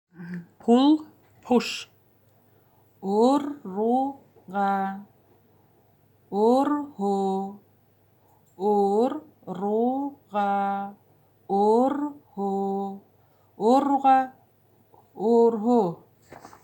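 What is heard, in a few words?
Buryat Pull push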